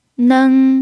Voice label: "nen1", Chinese

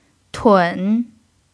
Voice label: "tun3", Chinese

